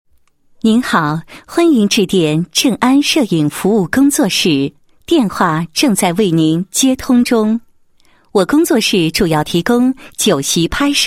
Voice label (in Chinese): 女 彩铃; 正安摄影服务工作室